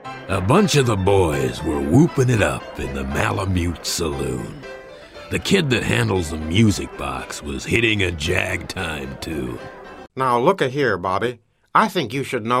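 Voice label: Characters EN US, American English; GoLocalise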